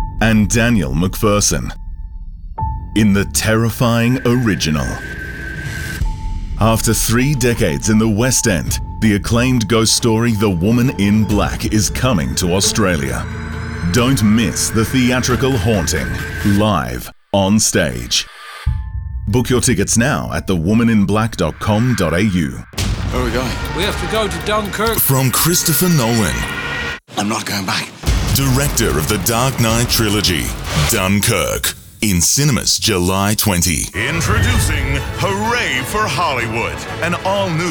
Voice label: Movie Trailer